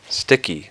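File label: "sticky", English; fonetica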